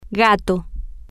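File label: "gato" Spanish, female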